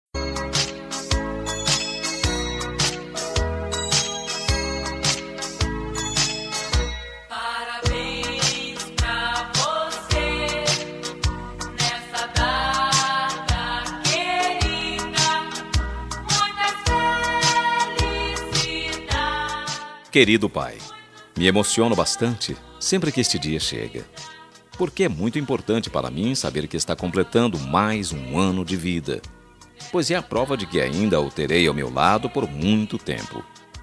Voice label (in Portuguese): ANIV PAI MASC PARABENS TRADICIONAL